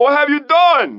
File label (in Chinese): 拉丁裔海滩中年男性; 罪恶都市; What have you done?